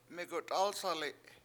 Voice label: "megut7Asali7", washo; rabbitskin blanket